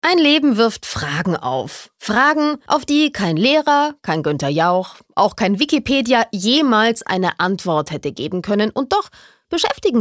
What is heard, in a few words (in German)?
Schauspiel Dynamisch